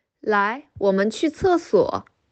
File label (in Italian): Cinese